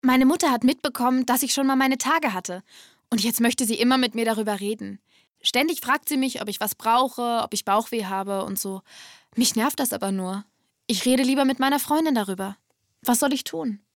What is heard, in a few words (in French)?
synchron